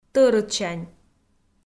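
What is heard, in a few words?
"тӧрытсянь", Komi, female; audio dict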